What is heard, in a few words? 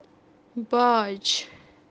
"baaj" tax toll, kashmiri